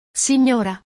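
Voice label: "signora", Italian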